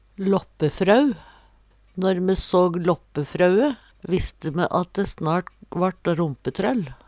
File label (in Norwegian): lappefrau, Numedalsmål